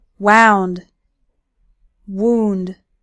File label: "wound", English; common english heteronyms